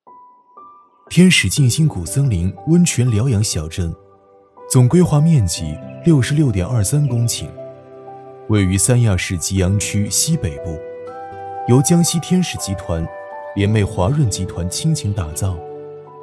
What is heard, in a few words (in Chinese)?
男 广告; 天使·净心谷